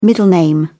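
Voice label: "middle name", British English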